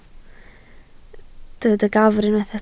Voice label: "gafr", Welsh

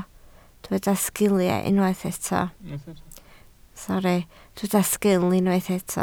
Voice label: "sgil", Welsh

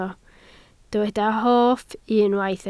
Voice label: "hoff", Welsh

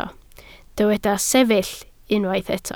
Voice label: "sefyll", Welsh

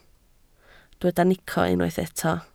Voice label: "nico", Welsh

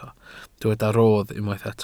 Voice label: "rodd", Welsh

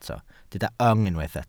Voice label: "yng", Welsh

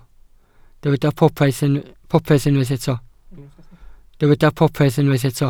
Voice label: "popeth", Welsh

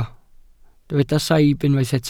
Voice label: "saib", Welsh

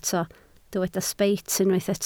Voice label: "sbeit", Welsh

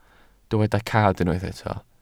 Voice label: "cad", welsh

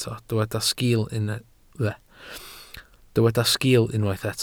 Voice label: "sgiil", Welsh

